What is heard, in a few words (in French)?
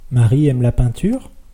interro tone, French; interrogative